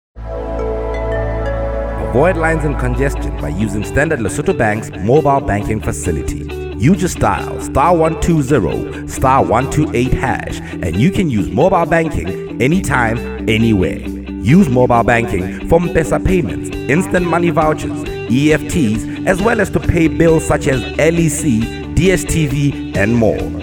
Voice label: USSD change English Advert; Std Bank